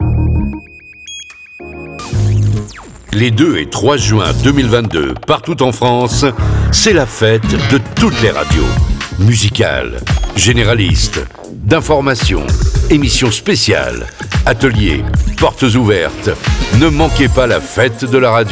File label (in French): spot